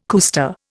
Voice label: "cuesta", Indian

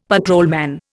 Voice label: "patrolman", Indian; Dictionary

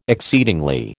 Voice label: "exceedingly", American

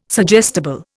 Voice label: "suggestible", Indian

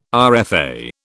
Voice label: "RFA", British

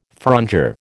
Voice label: "frondeur", American

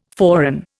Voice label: "forerun", Indian

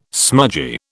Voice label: "smudgy", British

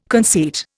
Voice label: "conceit", Indian

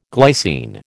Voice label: "glycine", American

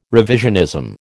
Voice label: "revisionism", American

